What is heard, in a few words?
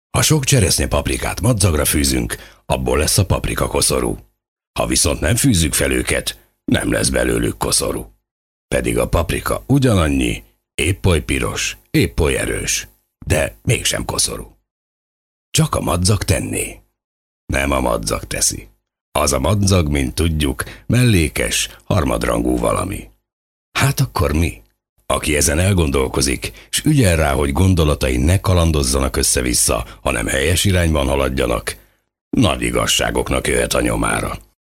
one minute story hungarian